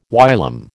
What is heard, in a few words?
"whilom", American